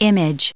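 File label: "image", US English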